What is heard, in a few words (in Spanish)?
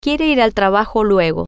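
quiere ir trabajo luego, Spanish, female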